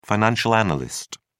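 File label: "financial analyst", British English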